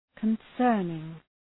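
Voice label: "concerning", English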